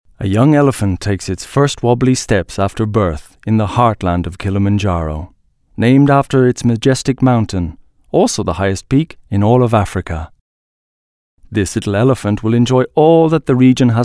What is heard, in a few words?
english irish documentaries